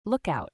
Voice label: "lookout" us female; everyone-can-use-english